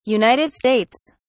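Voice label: "United States", British English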